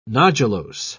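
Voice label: "nodulose", British English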